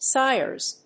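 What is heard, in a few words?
"sires", English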